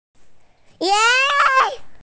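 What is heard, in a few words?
"yun2", Mandarin Chinese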